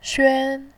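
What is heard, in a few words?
"xuan", Mandarin Chinese, tone 1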